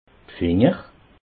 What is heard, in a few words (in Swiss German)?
"finger", alsace